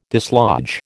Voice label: "dislodge", American